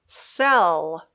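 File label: "sell", English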